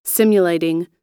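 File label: "simulating", American English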